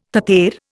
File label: "tater", Indian